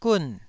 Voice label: "gun4", taiwanese syllable